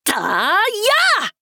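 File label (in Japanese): 「たぁーやっ！」, 男の子 王道系少年主人公 戦闘ボイス 攻撃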